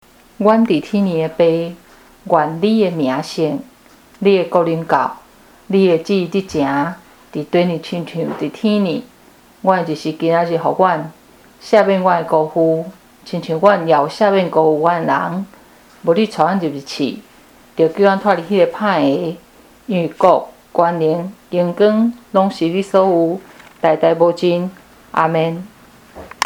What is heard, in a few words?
the Lords prayer in Taiwanese